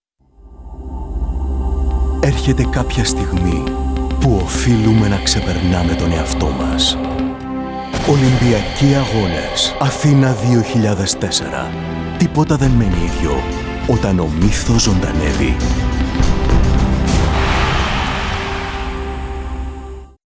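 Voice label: Fremdtake Sanft, Greek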